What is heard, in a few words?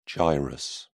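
"gyrus", British English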